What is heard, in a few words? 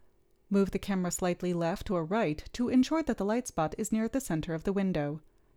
Gong Voiceover